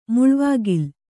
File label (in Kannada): "ಮುಳ್ವಾಗಿಲ್", Kannada